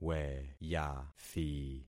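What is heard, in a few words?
"weyathi", concatenated syllables; Affixing experiment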